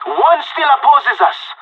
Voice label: one enemy left, pirate; Counter Strike Model Pack; CS:GO